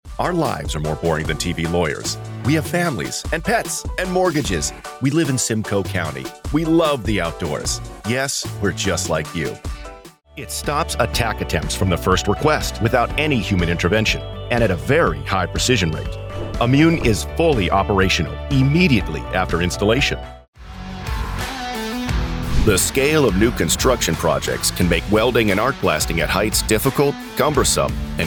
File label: all commercial